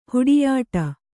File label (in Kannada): "ಹುಡಿಯಾಟ", kannada